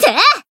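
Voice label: Voice attack, Japanese; tiramisu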